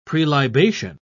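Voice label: "prelibation", English, British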